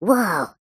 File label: cartoon character high pitched says "woah"